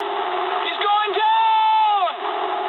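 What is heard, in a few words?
English aircraft destroyed mood med; War Thunder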